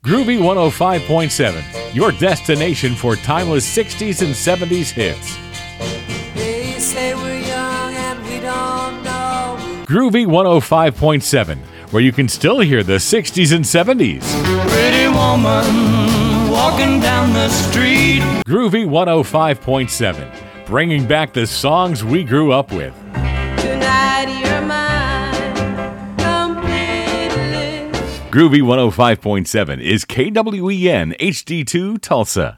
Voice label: Imaging